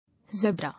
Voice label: "Zebra", English

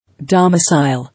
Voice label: "Domicile", English

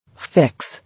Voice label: "Fix", English

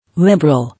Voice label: "Liberal", English

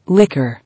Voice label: "Liquor", English

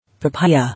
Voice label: "Papaya", English

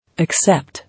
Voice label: "Except", English

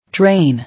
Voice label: "Drain" English

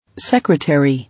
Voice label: "Secretary", English

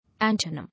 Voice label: "Antonym", English

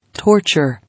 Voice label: "Torture", English

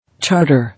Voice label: "Charter", English

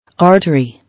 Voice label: "Artery", English

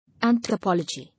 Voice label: "Anthropology", English

